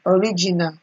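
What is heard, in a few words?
"original", Nigerian Pidgin, female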